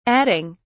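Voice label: "adding", English, British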